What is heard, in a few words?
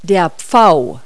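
"peacock", English word; Multi-Lingual Talking Picture Dictionary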